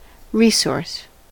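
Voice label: "resource", American English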